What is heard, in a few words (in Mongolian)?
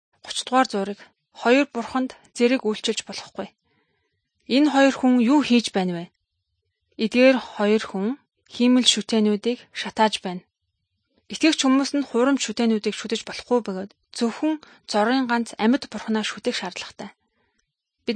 good news mongolian